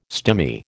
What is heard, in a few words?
"stimy", American